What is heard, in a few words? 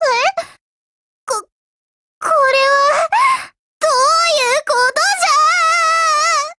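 BREAK, Japanese; GF Alarm; M1895Mod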